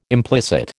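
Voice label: "implicit", American English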